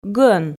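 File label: "гӧн", Komi, female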